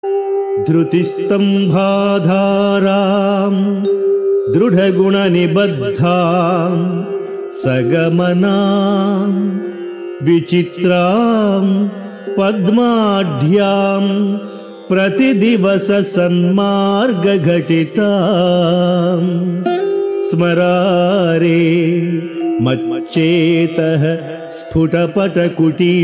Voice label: Sanskrit; Sri Adi Sankaracharyas Sivananda Lahari; Adi Shankaracharya; श्रीशिवानन्दलहरी २१